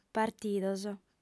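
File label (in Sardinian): "partidos", female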